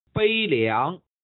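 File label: "悲凉", Chinese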